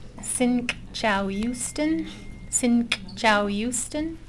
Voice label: snk̓caw̓iwstn bathroom, nsyilxcn words; additional nsyilxcn words for campus